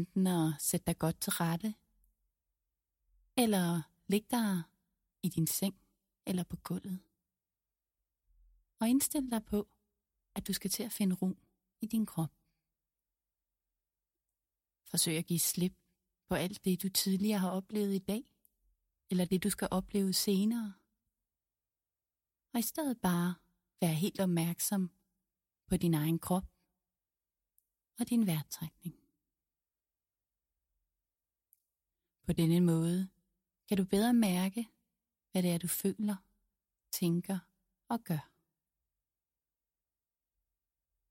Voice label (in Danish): Skildpadde meditationen